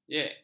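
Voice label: "jek", Malay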